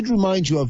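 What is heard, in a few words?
"remind you of", Irish English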